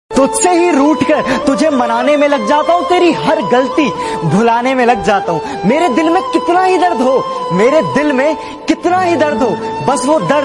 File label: Love Shayari Ringtone